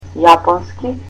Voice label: "Japanese", Macedonian